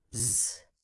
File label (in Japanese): 子音Zのみの発音